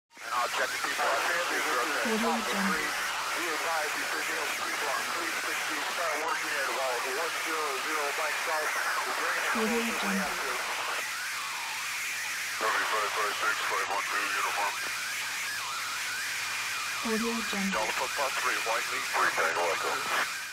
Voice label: war radio chatter; mantlee